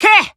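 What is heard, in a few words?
Voice Emotion Call Name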